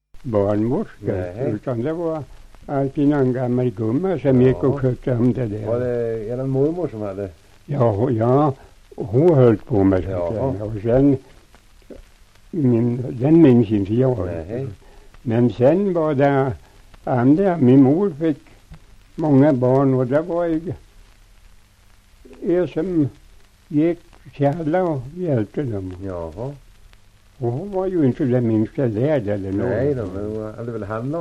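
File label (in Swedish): Säterbo Västmanland, dialekter; Folkminnen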